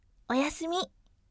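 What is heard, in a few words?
"oyasumi", bright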